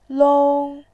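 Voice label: "long", Mandarin Chinese, first tone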